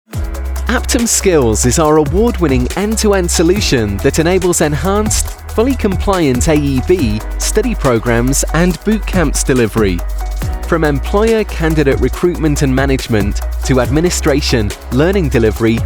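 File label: corporate narration, Male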